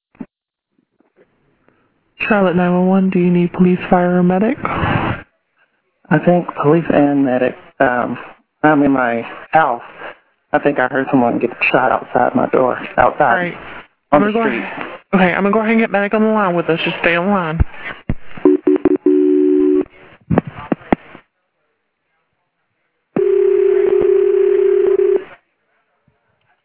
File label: 911 call; west clt triple shooting 911 call 1; one dead after triple shooting in west charlotte